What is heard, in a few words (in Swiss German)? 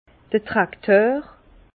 "trakteur", Bas Rhin; vin; tracteur